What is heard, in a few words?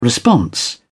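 "response", British English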